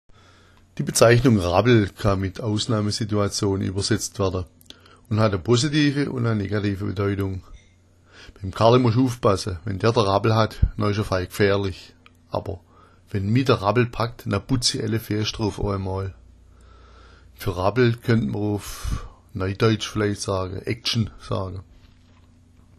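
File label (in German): rappl, schwäbisch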